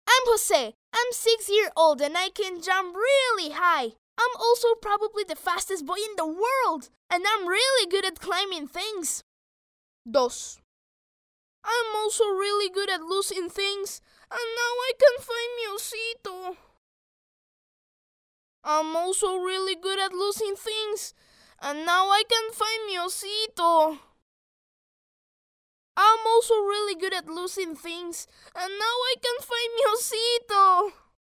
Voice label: locutor nino latin american spanish child voice english; English child voices. Latin American accent; Recordings of children voiceovers